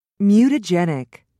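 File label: "mutagenic", British English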